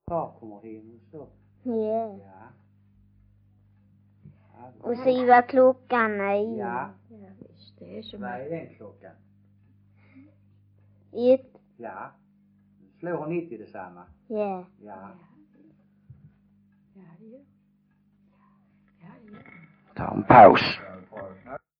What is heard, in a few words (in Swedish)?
Stehag Västra Sallerup SK, dialekter; Folkminnen